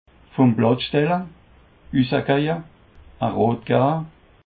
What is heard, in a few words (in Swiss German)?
"vum platz stelle", Alsace